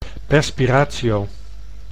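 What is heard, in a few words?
"perspiratio", Dutch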